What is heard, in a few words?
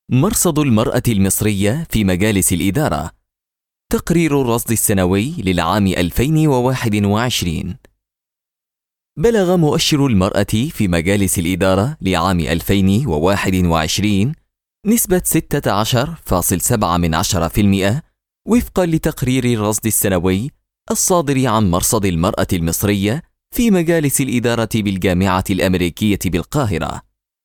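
Arabic Voiceover Sample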